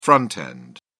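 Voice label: "front end", British English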